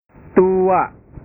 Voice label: "tua", bahasa

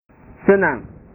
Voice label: "senang", bahasa

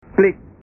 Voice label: "klik", bahasa

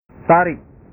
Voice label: "tarik", bahasa

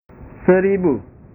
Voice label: "seribu", bahasa

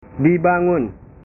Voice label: "dibangun", bahasa

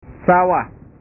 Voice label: "sawah", bahasa

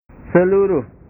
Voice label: "seluruh", bahasa

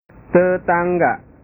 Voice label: "tetangga", bahasa

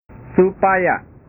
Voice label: "supaya", bahasa

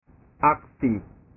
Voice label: "aksi", bahasa

